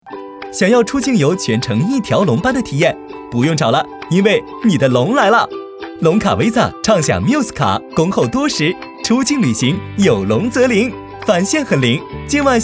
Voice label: 男 活泼; 龙卡visa

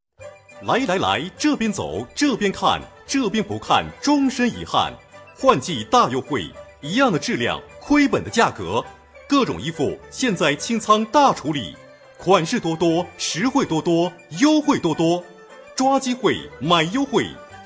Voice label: 男 促销

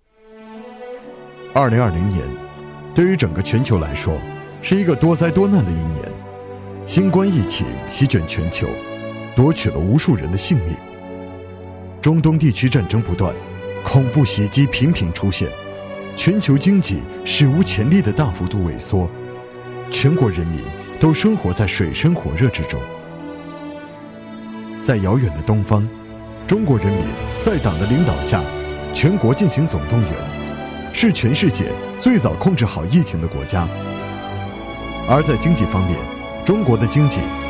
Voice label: 男 专题大气讲述; 疫情经济

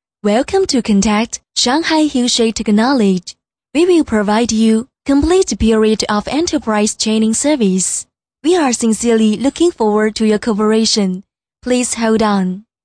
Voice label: 英文 彩铃, 女

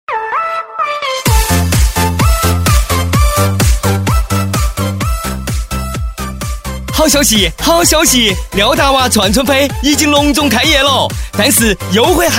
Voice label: 男 重庆方言促销; 廖大娃串串

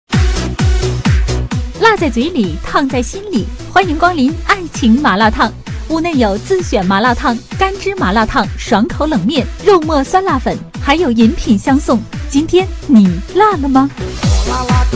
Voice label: 女 促销 广告促销

